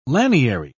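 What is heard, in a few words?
"laniary", British English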